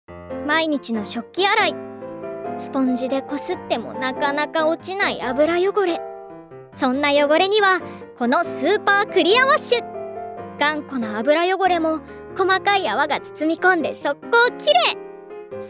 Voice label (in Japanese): ナレーション